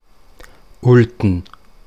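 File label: Ulten dialect; Ulten Mundart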